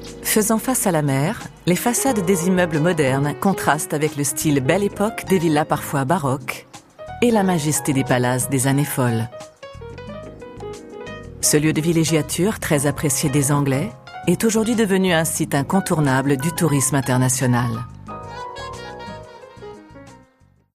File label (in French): Nice et sa côte narration